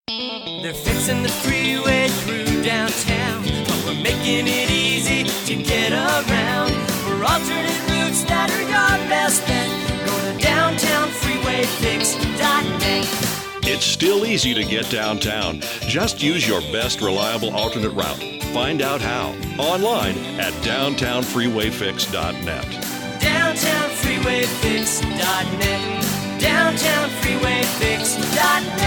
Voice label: Commercials